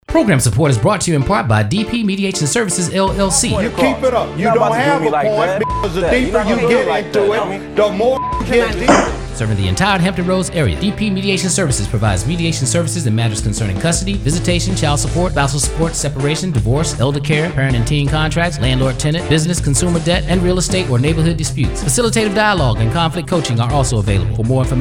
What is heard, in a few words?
Commercial